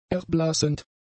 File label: "erblassend", German; adjectives